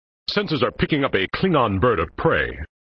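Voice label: "Sensors are picking up a Klingon Bird of Prey", male voice; Worf; flipper; sttng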